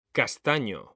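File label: "castaño", Spanish, male; mekanta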